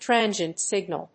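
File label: "transient signal", English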